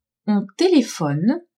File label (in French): "on telephone", French; telephoner